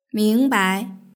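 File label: "mingbai" Mandarin Chinese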